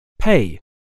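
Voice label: "pay", English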